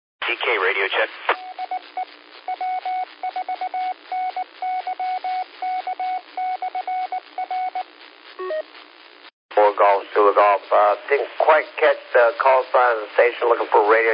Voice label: qso rec simplex logic